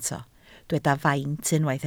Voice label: "faint", Welsh

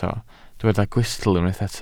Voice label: "gwystl", Welsh

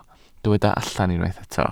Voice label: "allan", Welsh

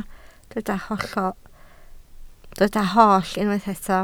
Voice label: "holl", Welsh

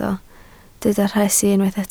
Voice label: "rhesi", Welsh

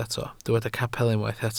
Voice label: "capel", Welsh

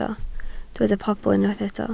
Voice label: "pobl", welsh

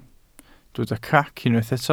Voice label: "crac", welsh

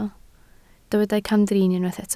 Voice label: "camdrin", Welsh

